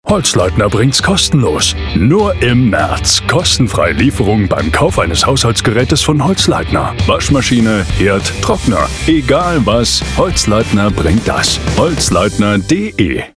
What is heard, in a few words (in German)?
Hoerprobe Werbung; Holzleitner